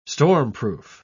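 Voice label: "stormproof", British English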